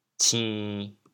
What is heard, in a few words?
"cin1", chaoyang